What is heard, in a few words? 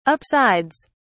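"upsides", British English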